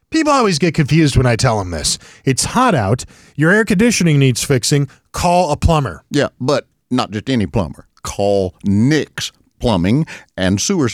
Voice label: RADIO AD